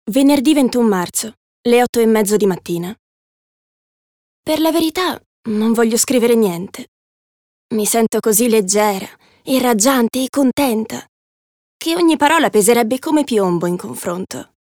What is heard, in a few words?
italian f narration; voice demos